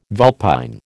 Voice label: "vulpine", American